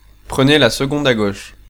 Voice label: 2nd Left, French, male